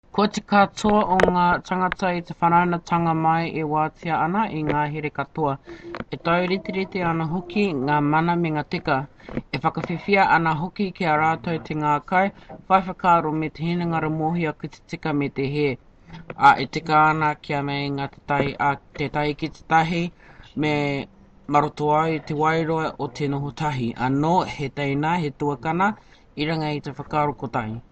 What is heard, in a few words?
Maori